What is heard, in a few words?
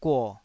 "guo3", taiwanese